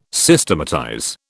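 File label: "Systematize", British English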